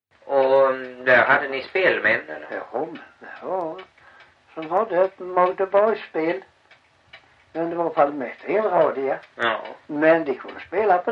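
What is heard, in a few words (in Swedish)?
Backaryd Blekinge, Svenska sagor dialekter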